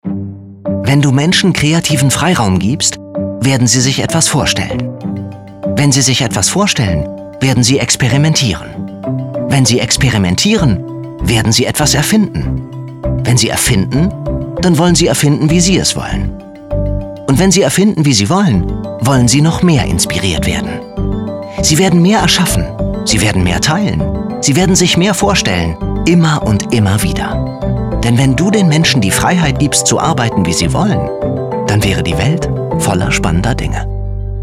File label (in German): Informativ, German; voice